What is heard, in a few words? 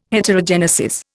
"Heterogenesis", Indian